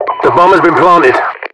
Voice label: announcer bomb planted